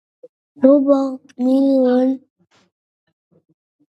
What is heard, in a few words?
voice message